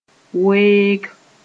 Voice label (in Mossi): "weeg", moore